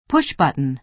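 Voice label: "push-button", American English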